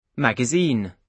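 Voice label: "magazine", British English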